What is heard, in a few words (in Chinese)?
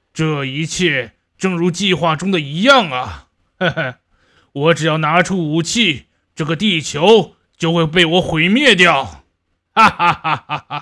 动漫人物音